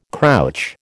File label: "crouch", American